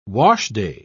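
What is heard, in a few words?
"washday", British English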